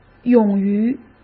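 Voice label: "勇于" Mandarin Chinese; hsk; chinese-flashcards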